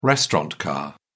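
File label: "restaurant car", British English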